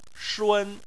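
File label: "shun", Chinese, first tone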